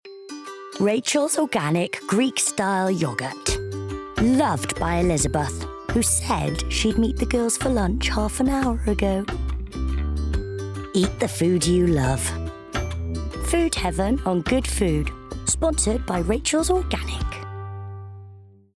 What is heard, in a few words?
Commercial; Rachels Organic